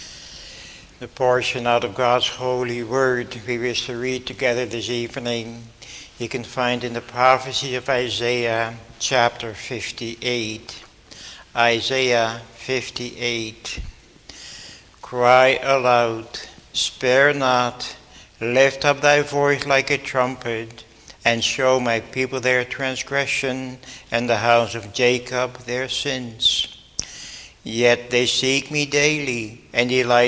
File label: Bible Reading